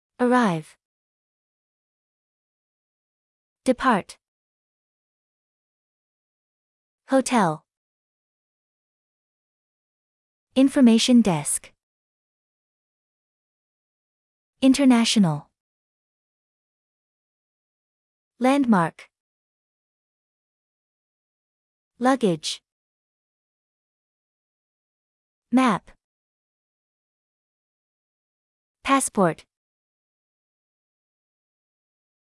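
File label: American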